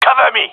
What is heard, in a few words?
gendermerie male; request cover me; Counter Strike Model Pack; CS:GO